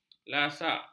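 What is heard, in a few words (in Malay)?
"lasak", Malay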